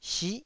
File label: "sih9", Taiwanese syllable